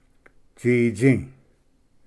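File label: "tsitsi", Cherokee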